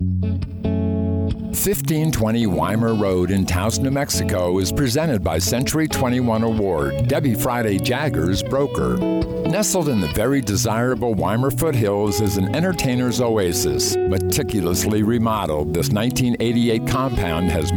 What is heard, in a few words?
VOICE OVER WITH MUSIC